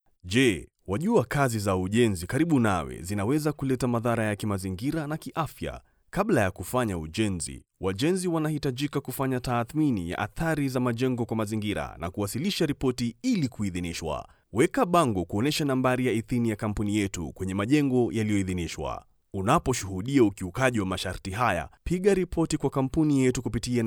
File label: male swahili voice over talent character